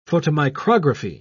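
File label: "photomicrography", British English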